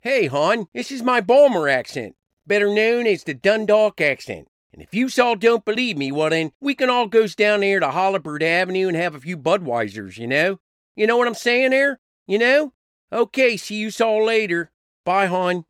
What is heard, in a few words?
Baltimore, Accents & Dialects; Voice, Acting & Singing samples